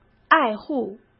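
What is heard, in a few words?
"爱护", Mandarin Chinese; chinese flashcards; hsk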